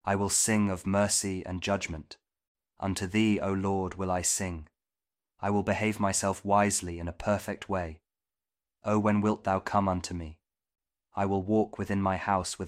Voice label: psalm kjv king james audio bible